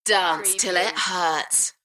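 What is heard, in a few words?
UK female, voice drop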